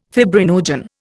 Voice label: "fibrinogen", Indian

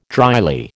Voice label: "dryly", American

